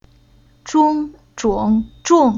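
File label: "Zhong", chinese syllable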